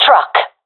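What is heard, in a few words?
location truck, professional female; vo; Counter Strike Model Pack; CS:GO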